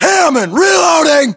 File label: inform reloading, US; localized english; battlechatter